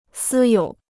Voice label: "私有", Chinese

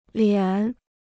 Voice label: "脸", Chinese

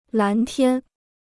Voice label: "蓝天", Chinese

